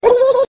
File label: booloo, Voice gibbrish